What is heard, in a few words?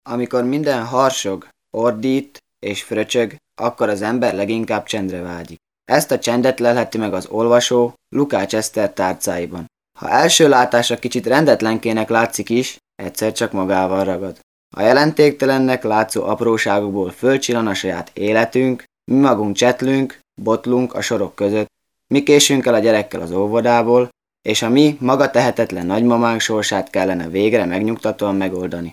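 Male, Hungarian, documentary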